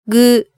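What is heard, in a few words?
"gu", Japanese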